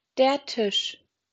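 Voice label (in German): "Tisch", German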